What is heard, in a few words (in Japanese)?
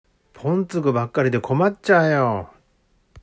「ぽんつぐ」, 旭弁 銚子弁; 役立たず; ボケっとしている人; ぽんつぐばっかりで困っちゃーよ」; （訳）役立たずばかりで困っちゃうよ